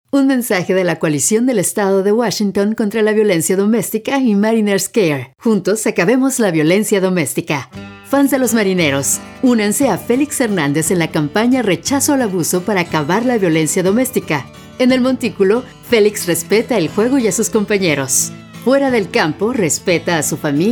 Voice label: Spanish